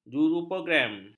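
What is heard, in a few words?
"juruprogram", Malay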